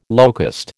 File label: "locust", American